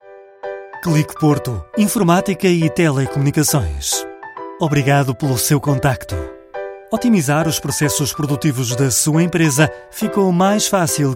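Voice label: Portuguese, Fremdtake Freundlich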